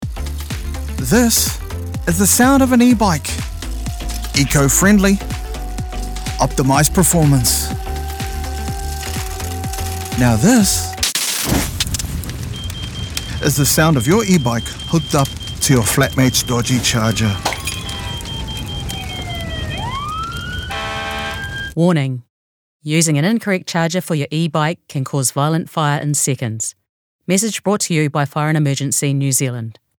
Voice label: Ebike Radio; FENZ; Lithium-ion-batteries